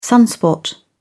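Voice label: "sunspot", English, uk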